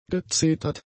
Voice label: "gezetert", German; adjectives